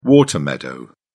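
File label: "water meadow", British English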